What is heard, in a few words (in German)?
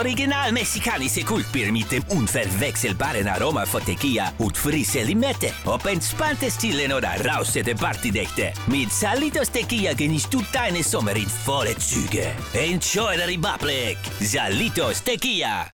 mexikanischer akzent; salitos